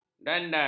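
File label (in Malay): "dandan", Malay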